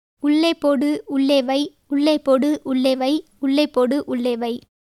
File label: Tamil